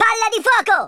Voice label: fireball, speech, Italian